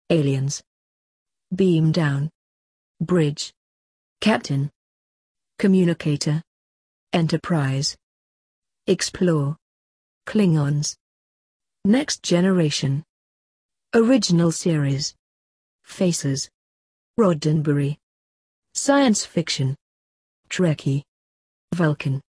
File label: uk; startrek